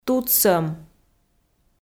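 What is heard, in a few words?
"тутсӧм", Komi, female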